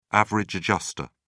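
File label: "average adjuster", English, British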